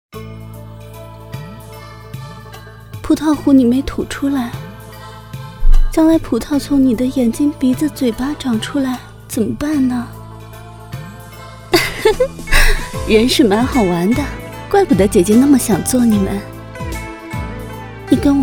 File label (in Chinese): 古风; 青蛇